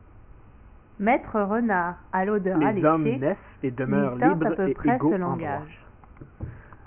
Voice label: long example mixed french